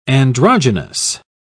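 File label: "androgenous", English, British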